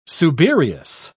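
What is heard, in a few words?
"subereous", British English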